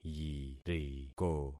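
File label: "yiriko", concatenated syllables; Affixing experiment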